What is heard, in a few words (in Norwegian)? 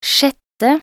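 "sjette", Norwegian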